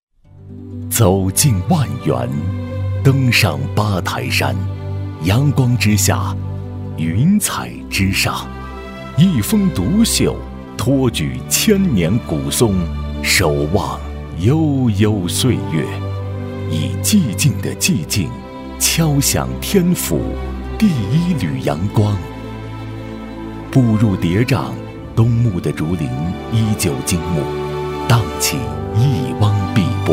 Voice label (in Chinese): 男 宣传片; 八台山